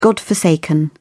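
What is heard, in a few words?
"godforsaken", British English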